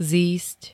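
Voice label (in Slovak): "zísť", Slovak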